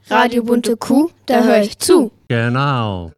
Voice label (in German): Jingle - da hör ich zu - Genau - zweistimmig; Radio BunteKuh